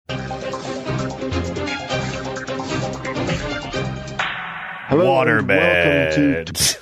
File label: saying waterbeds funny top story